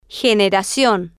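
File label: "generacion", Spanish, female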